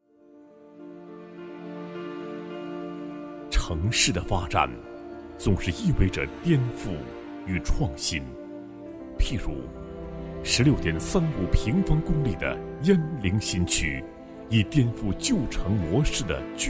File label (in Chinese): 男 专题 城市宣传, 国语男声配音; 低碳鄢陵; 磁性